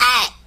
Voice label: ow cartoon; ooh ahh; ow ouch